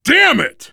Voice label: reaction negative, voice, biker; Left 4 Dead 2; left4dead2 dlc3; survivor